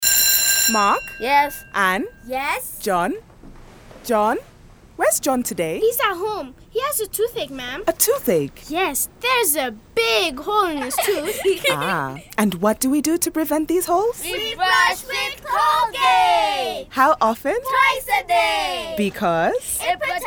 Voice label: Radio English; Colgate